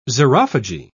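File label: "xerophagy", British English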